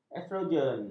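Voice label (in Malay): "estrogen", Malay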